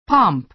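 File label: "pomp", American English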